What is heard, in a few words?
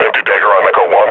reload, combine grunt; vo